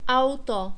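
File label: "auto", Czech, male; diphthongs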